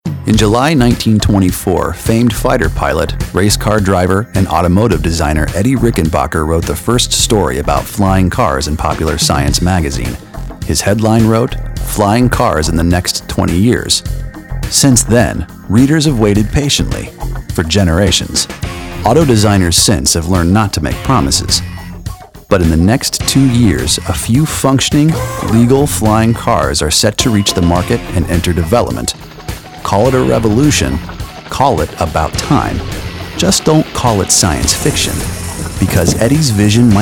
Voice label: Narration